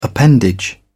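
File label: "appendage", British English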